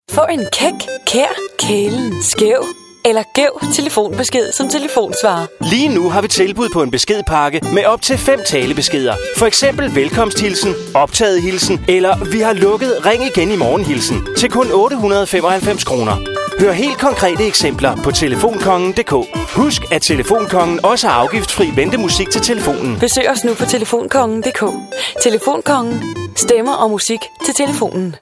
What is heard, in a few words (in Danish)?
radio reklame; telefonkongen